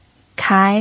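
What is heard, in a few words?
"kai" second tone, pinyin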